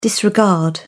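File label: "disregard", British English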